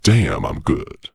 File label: corp gloat; Dystopia; vox new